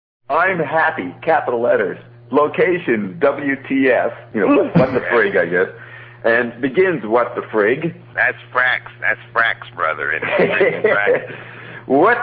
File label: rant